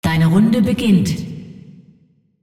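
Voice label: announcer female tournaments round start; clash; de de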